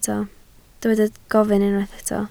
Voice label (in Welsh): "gofyn", Welsh